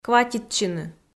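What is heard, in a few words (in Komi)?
"кватитчыны", Komi, female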